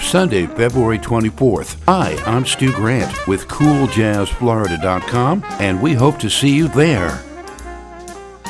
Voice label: Jazz Fest commercial